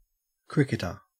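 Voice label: "cricketer", Australian English; pronunciations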